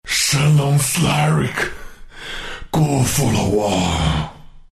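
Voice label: death, Chinese; dota2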